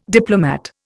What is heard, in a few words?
"diplomat", Indian